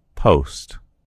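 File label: "post", American English; gcompris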